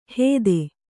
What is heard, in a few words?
"ಹೇದೆ", kannada